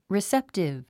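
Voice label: "receptive", English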